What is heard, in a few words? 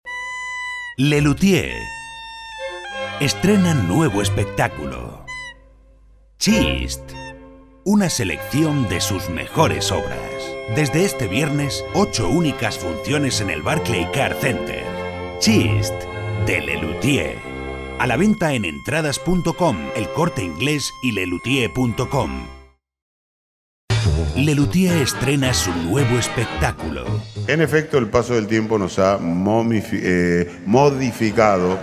male spanish castilian commercial compilation